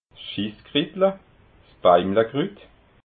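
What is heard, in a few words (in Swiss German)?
"schisskritla", Alsatian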